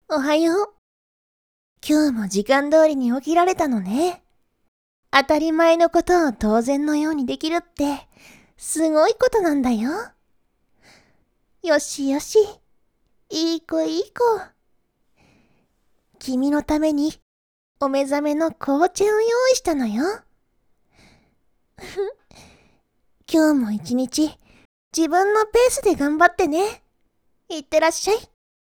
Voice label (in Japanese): 癒し系お姉さんに褒められながら過ごす朝; リニールハーフアニバーサリー記念おはようボイス演じ分け8+2種